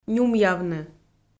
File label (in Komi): "нюмъявны", Komi